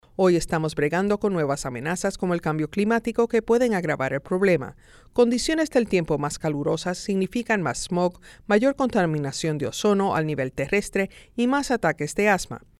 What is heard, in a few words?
Asthma Spanish